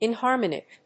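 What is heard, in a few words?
"inharmonic", English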